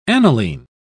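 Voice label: "aniline", British English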